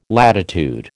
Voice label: "latitude", American